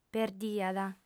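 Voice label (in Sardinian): "perdiat", female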